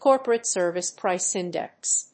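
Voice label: "corporate service price index", English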